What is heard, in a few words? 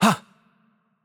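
Romanian AttackLight; bloom minigame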